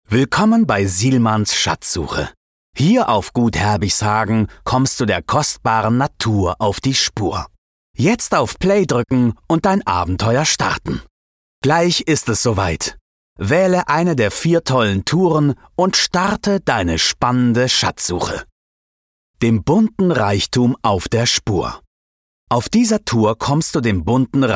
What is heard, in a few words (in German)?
audioguide; sielmanns schatzsuche